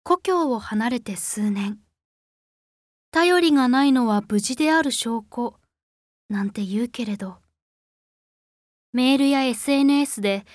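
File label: narration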